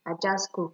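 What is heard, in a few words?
"ajasko", Nigerian Pidgin, female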